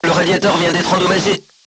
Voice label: voice message radiator damaged, crew tanks french